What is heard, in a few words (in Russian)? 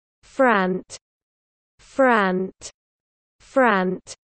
"Frant", russian dog names